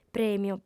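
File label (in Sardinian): "prèmio", female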